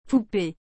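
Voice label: "poupee", French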